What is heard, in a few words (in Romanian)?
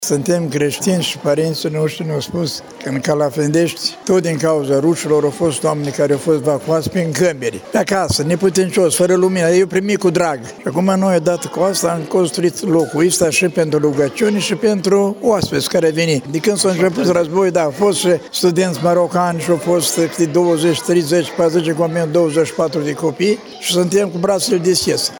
vox preot